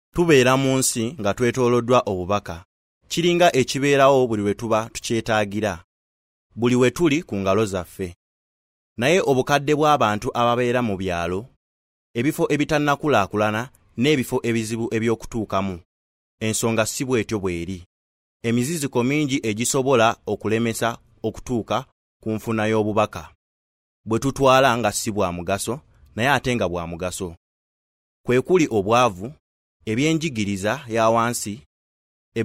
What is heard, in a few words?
Luganda